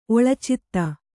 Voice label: "ಒಳಚಿತ್ತ", Kannada